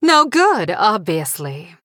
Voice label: Female Blood Elf MIRROR GREETINGS

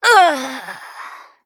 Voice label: Female Human Death

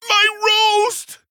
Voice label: Male Pandaren Attack

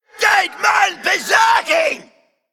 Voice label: Male Undead

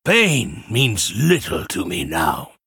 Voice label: male broken, Play

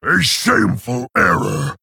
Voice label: Male Orc Oops

